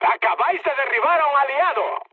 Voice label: player killed ally, mood med, speech; War Thunder

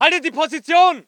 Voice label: voice ger infantry

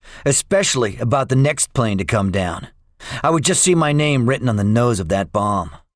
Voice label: debriefing, english; guadalcanal; War Thunder

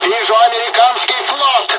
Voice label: dialog, dialogs russian; War Thunder

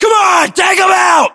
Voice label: order attack infantry, US

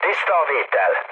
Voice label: hun chat, speech hun; War Thunder

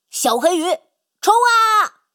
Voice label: Speech Fire Torpedo

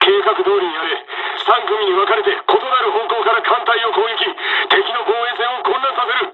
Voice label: dialog, dialogs japanese; War Thunder

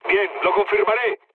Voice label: player killed enemy, mood med, speech

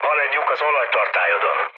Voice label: damaged oil, speech hun; War Thunder

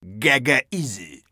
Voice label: mutter; Escape from Tarkov; scav1

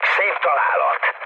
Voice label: bomb success, mood med, speech hun; War Thunder

